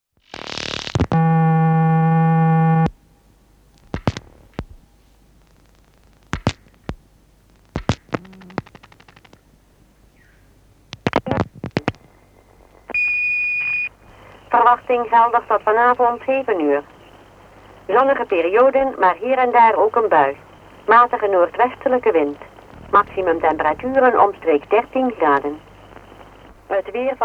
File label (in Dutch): telefonisch weerbericht